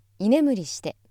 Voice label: female